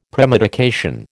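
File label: "premedication", American English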